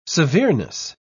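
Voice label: "severeness", British English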